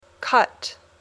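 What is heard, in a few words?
"cut", English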